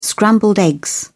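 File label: "scrambled eggs", British English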